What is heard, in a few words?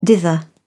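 "dither", British English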